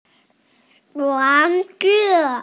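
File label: "wan2ju4", Mandarin Chinese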